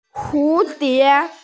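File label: "hu2die2", Mandarin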